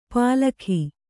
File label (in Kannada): "ಪಲಕಿ", Kannada